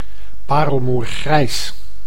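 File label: "parelmoergrijs", Dutch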